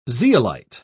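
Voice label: "zeolite", British English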